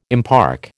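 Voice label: "impark", American English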